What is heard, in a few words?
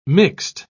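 "mixt", British English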